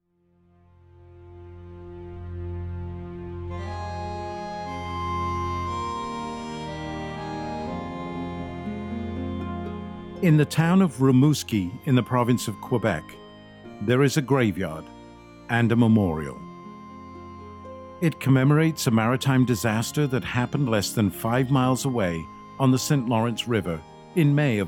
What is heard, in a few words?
male British voiceover documentary